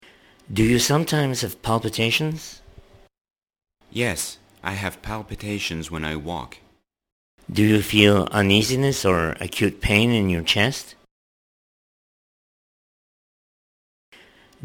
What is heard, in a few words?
Conversation